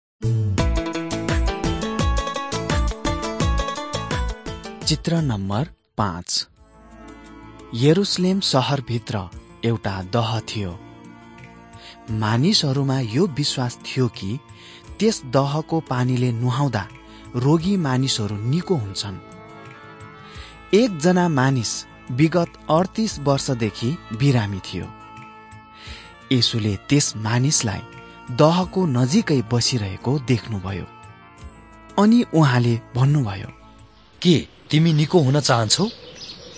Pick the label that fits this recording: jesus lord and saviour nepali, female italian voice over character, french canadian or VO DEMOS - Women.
jesus lord and saviour nepali